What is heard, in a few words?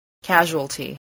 "casualty", spelling